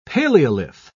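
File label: "paleolith", British English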